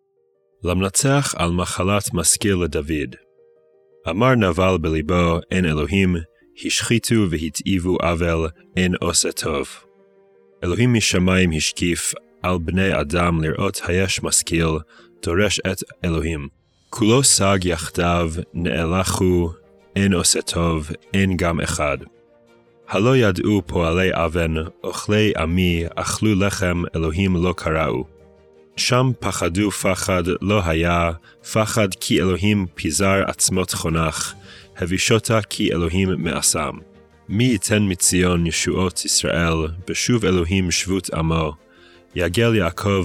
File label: poetry and prayer psalm hebrew